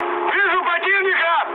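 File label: Russian enemy detected mood high, speech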